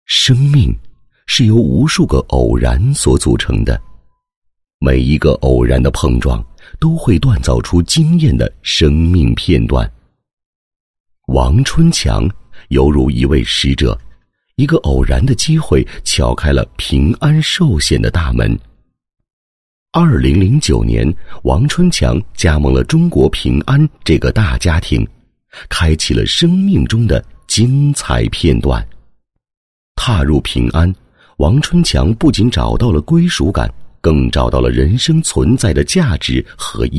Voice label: 男 人物; 平安寿险

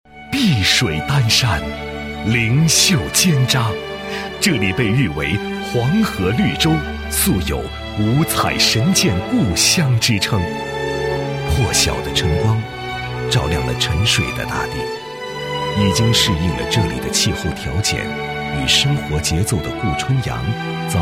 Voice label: 男 医院 大医精诚 人民医院宣传; 尖扎县人民医院宣传

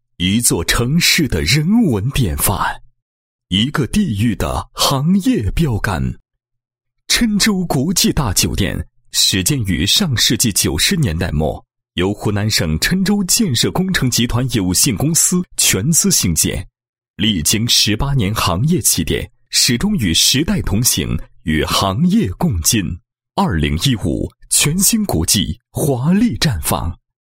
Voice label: 男 宣传广告 形象片文案片; 郴州国际大酒店

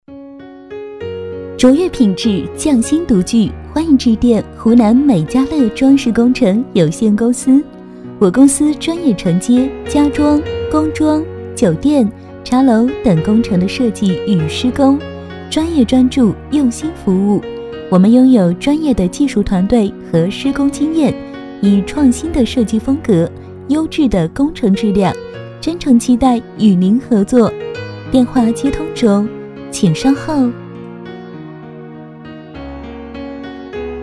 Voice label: 女 彩铃 设计

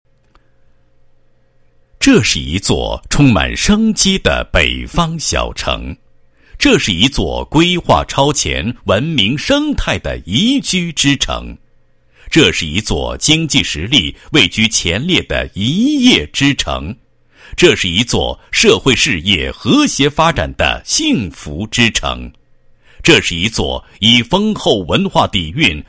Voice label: 男 旅游, 国语男声配音

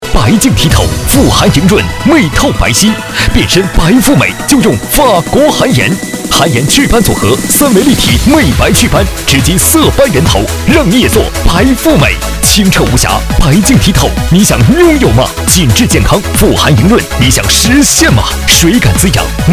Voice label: 男 电视购物, 国语男声配音 磁性; 磁性专题

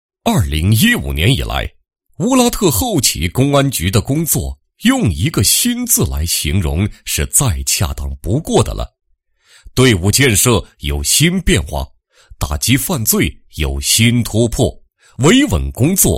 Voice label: 男 公安; 乌特拉后旗公安局

